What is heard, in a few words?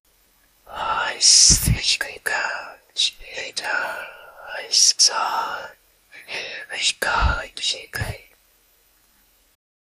woman whispering a prayer